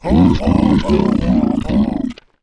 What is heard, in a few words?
Mountain giant pissed